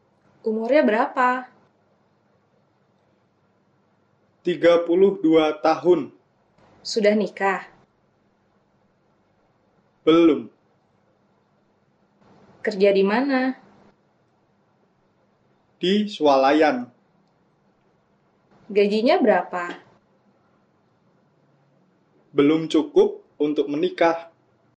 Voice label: Dialog